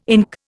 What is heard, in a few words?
"ink", Indian